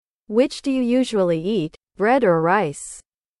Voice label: english